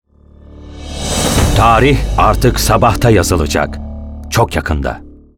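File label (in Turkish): otoriter, seslendirme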